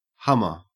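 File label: "hummer", Australian English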